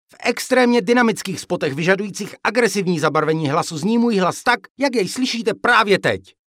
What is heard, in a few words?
male czech voice over upbeat